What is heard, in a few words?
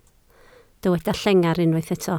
"llengar", Welsh